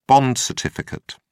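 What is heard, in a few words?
"bond certificate", British English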